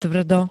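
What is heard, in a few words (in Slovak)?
"tvrdo", Slovak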